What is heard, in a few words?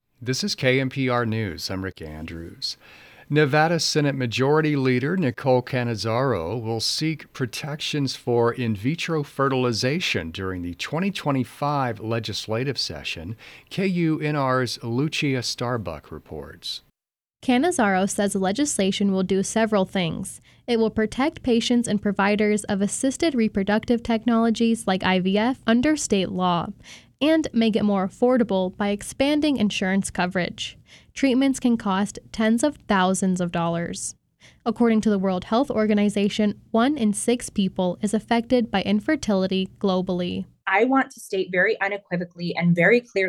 newscast